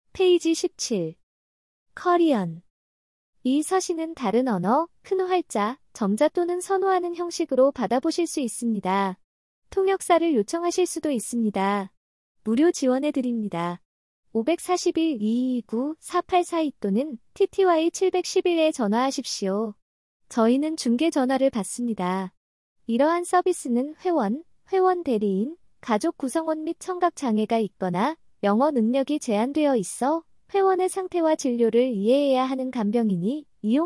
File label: korean